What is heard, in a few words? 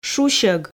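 "шусьог", Komi, female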